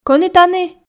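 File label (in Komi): кӧні тані ..., Komi, female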